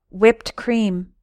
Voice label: "whipped cream", English

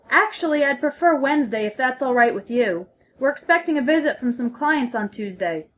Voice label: phone formal

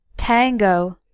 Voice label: "tango", English; english vocabulary words dance

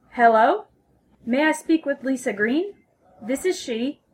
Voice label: phone formal

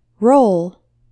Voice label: "roll", English

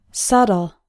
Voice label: "subtle", English

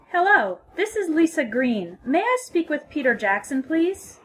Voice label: phone formal; telephone english making an appointment; This conversation is an example of formal telephone English.

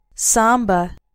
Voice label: "samba", English; english vocabulary words dance

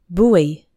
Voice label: "buoy", English; hard words

